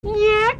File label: man calling yak